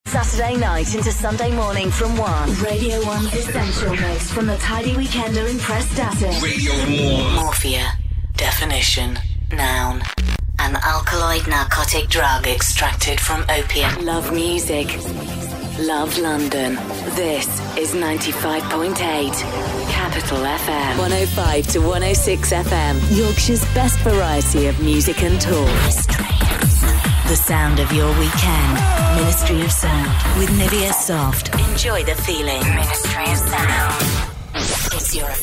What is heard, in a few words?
imaging